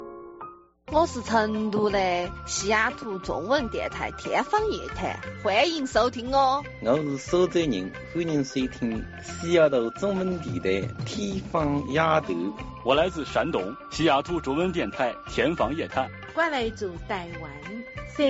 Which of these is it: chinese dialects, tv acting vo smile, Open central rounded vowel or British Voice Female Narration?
chinese dialects